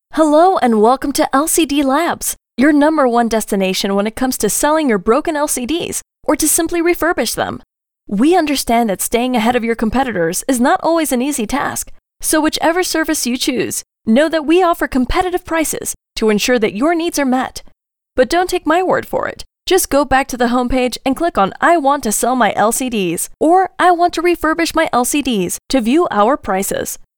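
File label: female English American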